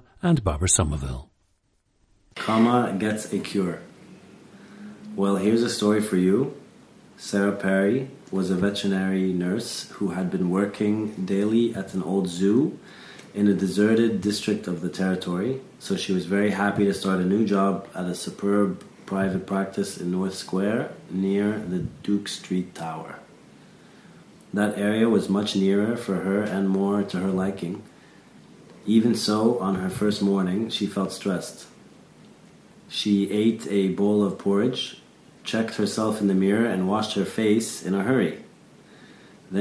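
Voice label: egypt, International Dialects of English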